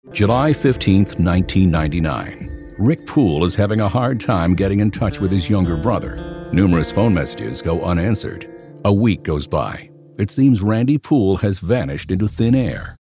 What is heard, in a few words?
ominous